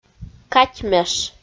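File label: "катьмеш", Komi, female; kpv audio dict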